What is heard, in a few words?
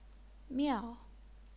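"miao", fourth tone, chinese pinyin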